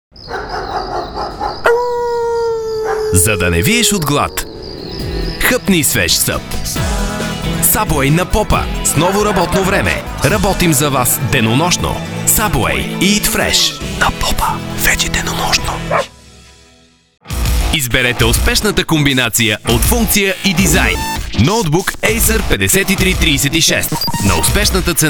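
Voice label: Bulgarian Commercial; GoLocalise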